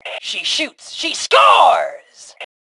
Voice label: Taunt Obnoxious, Female; T2 Female 04